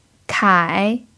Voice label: "kai3", pinyin